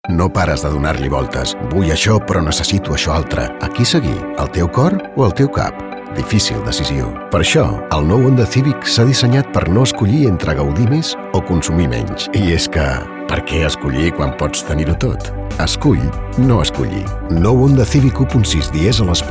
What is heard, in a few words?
male catalan comercial; ideasonora